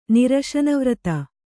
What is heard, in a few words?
"ನಿರಶನವ್ರತ", Kannada; niraśana vrata; noun; fasting.